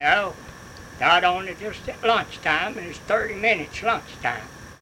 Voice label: Oral history with male and female Pine Ridge Kentucky, american english dialect